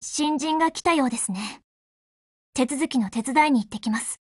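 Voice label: BUILD OVER Japanese, Voice; GF Alarm; Fedorov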